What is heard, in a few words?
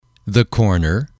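"the corner", English, male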